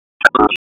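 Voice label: Raleigh Police North District Tactical, p25; wake p25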